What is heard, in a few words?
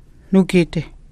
núgidi to pluck, Hidatsa